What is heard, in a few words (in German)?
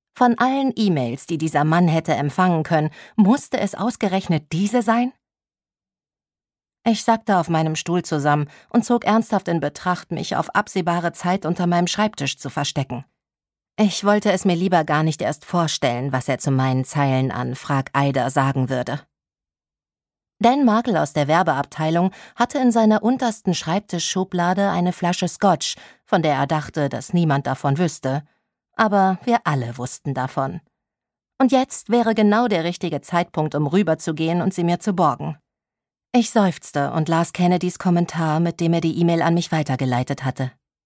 Hörbuch